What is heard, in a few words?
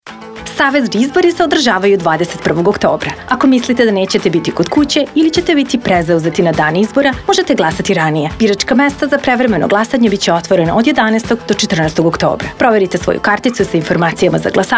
Serbian, radio advert; Early Voting Option